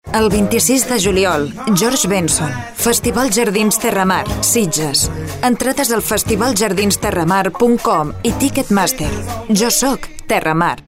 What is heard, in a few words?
female catalan music comercial; ideasonora